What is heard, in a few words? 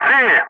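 "3", loud, English; Ka-50; Wingman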